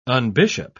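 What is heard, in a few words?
"unbishop", British English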